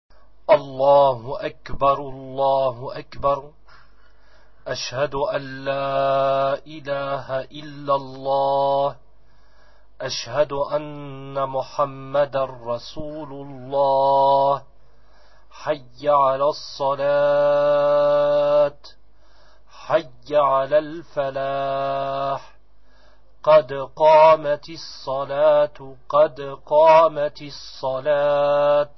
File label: iqamah; la priere